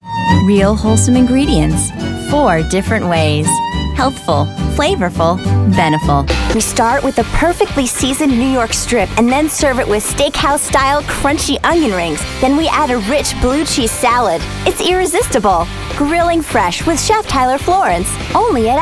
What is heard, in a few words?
commercial